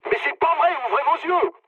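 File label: player friendly fire, mood low, French; War Thunder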